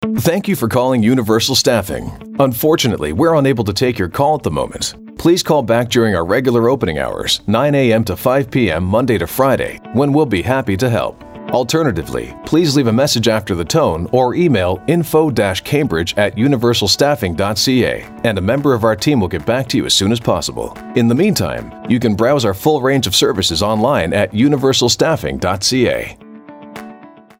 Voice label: American Male Voice, On Hold Messaging, Accent: North American neutral, Tone / Style: Friendly, yet authoritative